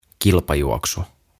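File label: "Kilpajuoksu", Finnish; Race; OTAVA KONSERNI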